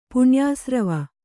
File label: "ಪುಣ್ಯಾಸ್ರವ", kannada